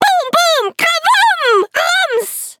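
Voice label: loading, German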